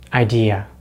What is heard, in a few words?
"idea", English